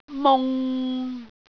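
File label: "mung6", Cantonese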